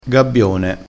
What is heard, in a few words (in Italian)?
"gabbione", crespinese